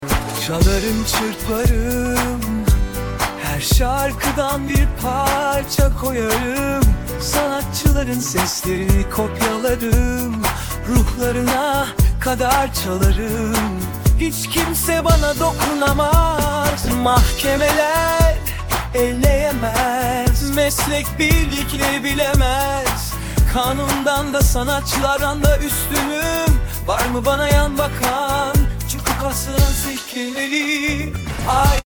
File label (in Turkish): Erkek